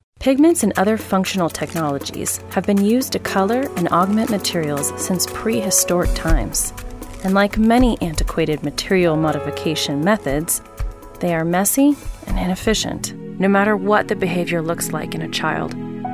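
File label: Narration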